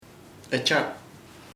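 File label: serpent serpiente, Chamacoco word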